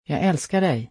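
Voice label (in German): Schwedisch, "Ich liebe dich"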